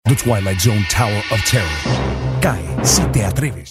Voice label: ominous